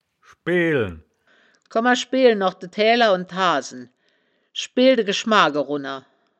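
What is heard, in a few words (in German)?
"schbeelen", wittlicher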